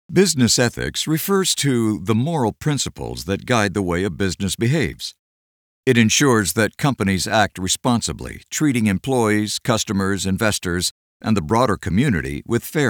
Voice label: eLearning